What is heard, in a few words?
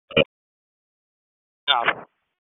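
FIRE Operations, p25; FIRE OPS 2; wake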